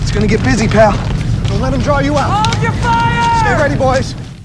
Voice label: us start round; dod; dod 1.3; dod enhancement pack